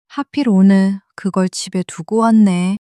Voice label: sadness